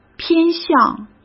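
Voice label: "偏向", Mandarin Chinese; chinese-flashcards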